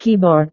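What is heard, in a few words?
"keyboard", Indonesian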